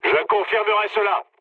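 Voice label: player killed enemy, mood low, French; War Thunder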